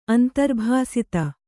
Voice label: "ಅಂತರ್ಭಾಸಿತ", Kannada